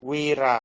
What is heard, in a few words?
"wira", Proto Germanic